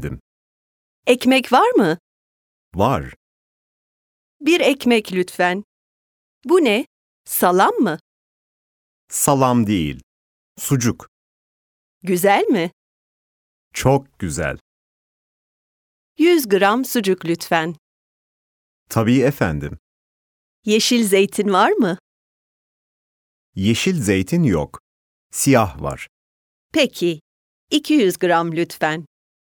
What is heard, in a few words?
Dialogue, Turkish